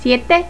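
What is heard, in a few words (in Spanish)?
"Siete", Spanish; Letter World v1.98a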